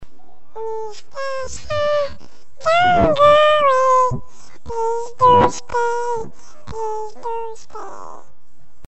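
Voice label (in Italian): La voce da topolino (effetto pitch-shift), Frase modificata; I bambini, con l'aiuto dell'insegnante, registrano e rielaborano la propria voce al comput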